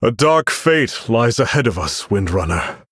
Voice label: Male Human